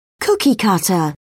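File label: "cookie cutter", British English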